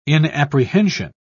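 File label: "inapprehension", British English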